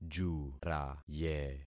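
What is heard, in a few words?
"juraye", concatenated syllables; Affixing experiment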